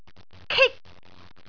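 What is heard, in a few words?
"kik1", Cantonese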